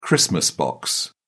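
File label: "christmas box", British English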